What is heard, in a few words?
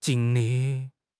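"jing1 li4", Mandarin Chinese; HSK4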